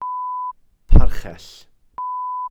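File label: "parchell", Welsh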